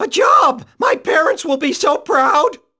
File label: squeaky voice teen job good